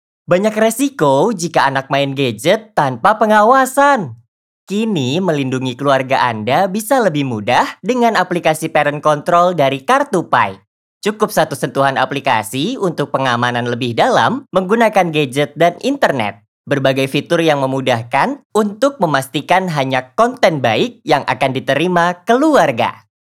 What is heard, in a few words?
CASUAL INDO